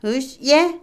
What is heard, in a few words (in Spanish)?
Otomí, mujer; jarilla; INALI